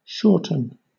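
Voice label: "shorten" English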